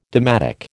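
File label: "dalmatic", American English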